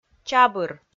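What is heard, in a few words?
"чабыр", Komi, female; kpv audio dict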